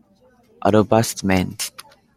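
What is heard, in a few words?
"advertisement", Japanese, male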